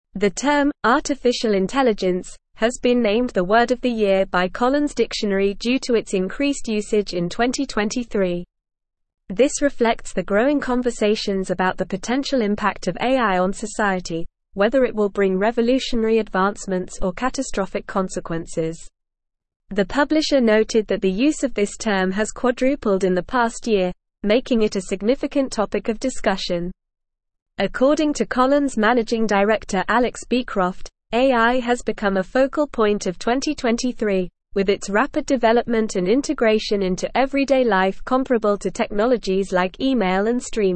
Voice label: English Newsroom Advanced NORMAL Reading; AI Named Collins Dictionary Word of the Year 2023